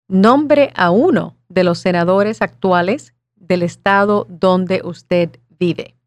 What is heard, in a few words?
Spanish